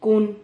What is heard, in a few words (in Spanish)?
"cun", Silabas